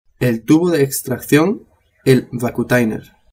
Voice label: "vacutainer", Spanish

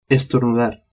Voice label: "estornudar" spanish

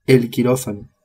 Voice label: "quirofano", spanish

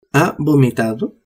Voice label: "vomitado", Spanish

